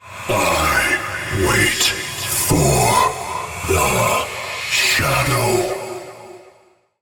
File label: Ethereal Voice; Cinematic; Warframe; Wraith Quest; Mission Four